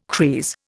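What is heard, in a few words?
"creese", Indian English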